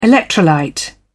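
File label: "electrolyte", British English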